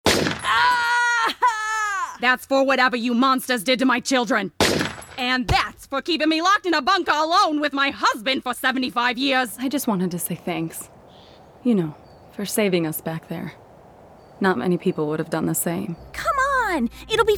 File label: ANIMATION